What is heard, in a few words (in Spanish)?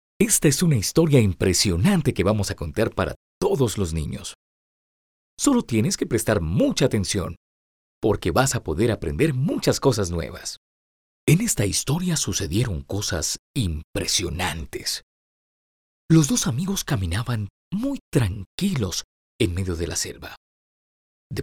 Narraciones Infantiles